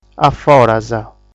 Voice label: "Aforas", sardu

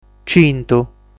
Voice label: "Cintu", sardu

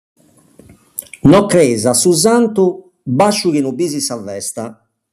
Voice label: "Crei Santu Bàsciu Biri Festa", sardu

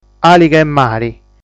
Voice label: "Àliga De Mari", sardu

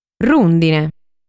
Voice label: "Rùndine", sardu

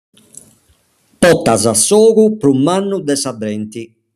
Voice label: "Portai Ogu Mannu", Sardu

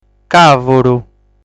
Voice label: "Càvuru", sardu

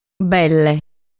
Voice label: "Belle", sardu

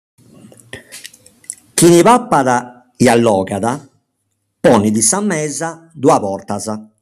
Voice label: "Papai Allogai Ponni Mesa Borta", sardu

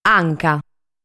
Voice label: "Anca", sardu

